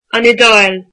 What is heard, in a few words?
"Italy", Irish